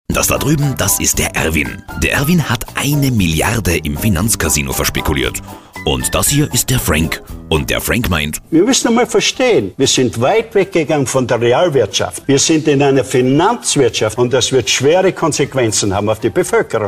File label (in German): Radiospot; Niederoesterreich